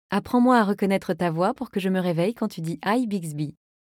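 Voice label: wake up ready French; Bixby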